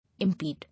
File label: "Impede", English